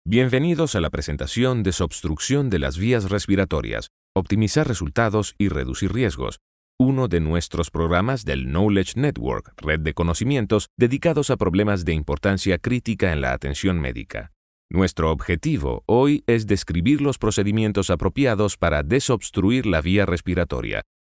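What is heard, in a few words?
generico, Venezuelan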